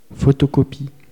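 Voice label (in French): "photocopie", French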